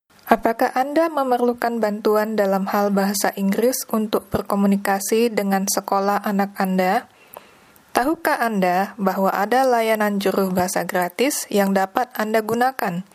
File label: indonesian; public schools; going to a public school